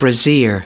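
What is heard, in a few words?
"brassiere", US English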